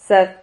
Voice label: "zad", Silabas

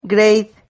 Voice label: "greid", Silabas

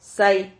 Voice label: "sai", silabas

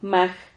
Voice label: "maj", Silabas

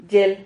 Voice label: "yel", Silabas

